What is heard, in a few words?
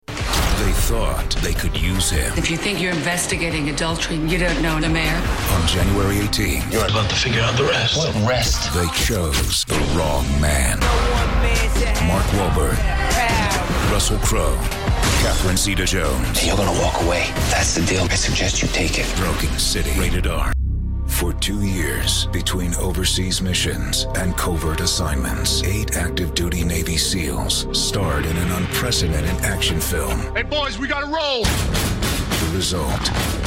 Narration